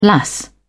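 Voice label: "lass", British English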